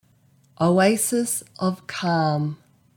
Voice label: Oasis of calm, en au; garden